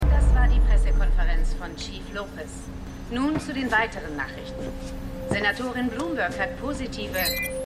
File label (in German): Nachrichtensprecherin; Black Lightning